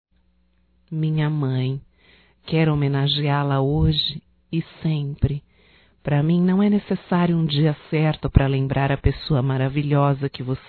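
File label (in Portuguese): HOMENAGEM A MãE (Feminino), Telemensagens; Homenagens; Telemensagens 2017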